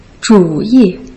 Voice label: "zhu yi", Putonghua

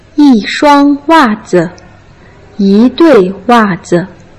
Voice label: "yi shuang wa zi yi dui wa zi", Putonghua

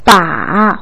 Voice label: "baa3", Putonghua

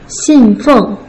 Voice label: "xin4 feng4", Putonghua

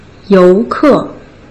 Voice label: "you2 ke4", Putonghua

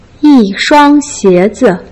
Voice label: "yi1 shuang1 xie2 zi", Putonghua

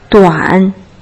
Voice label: "duan3", Putonghua

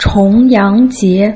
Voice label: "chong2 yang2 jie2", Putonghua

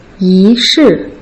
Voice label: "yi2 shi4", Putonghua

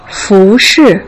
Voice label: "fu2 shi4", Putonghua

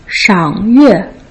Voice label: "shang yue", Putonghua

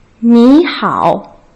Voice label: "ni hao", Putonghua; Uni1Lesson2

